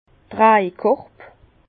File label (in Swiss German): "trajkorb", Bas Rhin; hotte de vendanges; vin